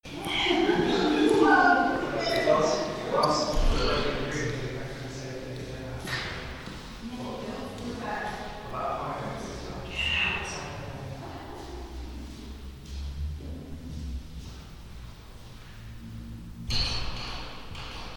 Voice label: Spirit voice cleaned up